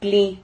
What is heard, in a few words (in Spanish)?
"kli", Silabas